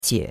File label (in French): "qie3", chinois; Cours-de-Chinois